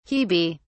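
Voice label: "hebe", British English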